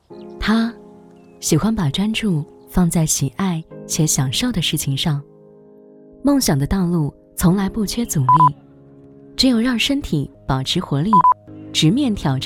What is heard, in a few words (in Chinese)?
女 旁白